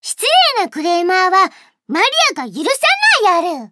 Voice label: victory jp